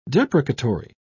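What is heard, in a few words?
"deprecatory", English, British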